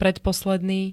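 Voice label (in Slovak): "predposledný", Slovak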